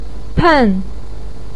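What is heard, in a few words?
"pen4", Mandarin Chinese, pinyin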